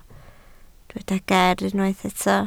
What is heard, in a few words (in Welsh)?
"ger" Welsh